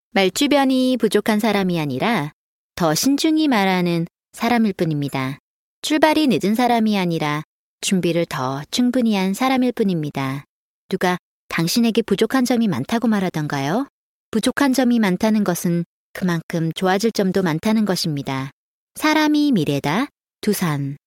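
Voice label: Korean Commercial; GoLocalise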